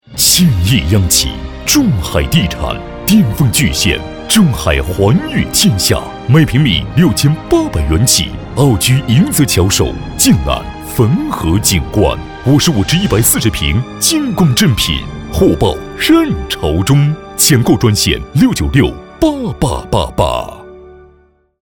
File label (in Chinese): 男 广告 地产, 浑厚; 国语男声配音; 中海地产